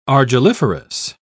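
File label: "argilliferous", British English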